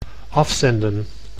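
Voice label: "afzenden", Dutch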